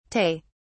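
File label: "tay", British English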